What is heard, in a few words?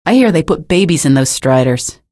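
citizen remarks, female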